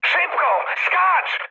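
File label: bailout, mood high, Polish speech; War Thunder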